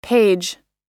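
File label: "page", American English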